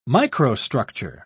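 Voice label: "microstructure", British English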